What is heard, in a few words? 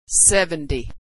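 "number 70", American English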